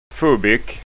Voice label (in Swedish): "fubbick", skanskaord